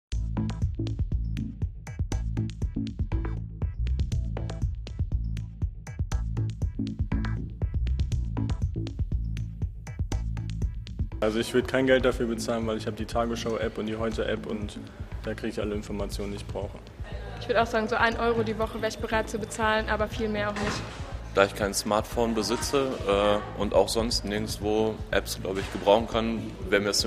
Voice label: voxpop; paidcontent